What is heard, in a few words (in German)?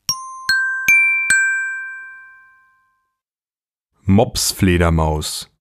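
"Mopsfledermaus", German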